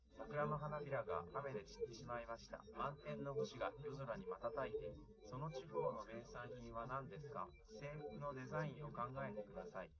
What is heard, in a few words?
male, live rec, 130ms, 1m